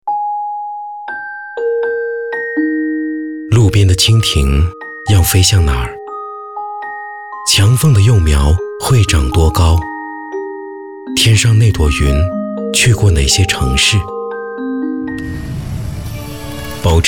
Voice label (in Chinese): 讲述, 自我介绍, 温柔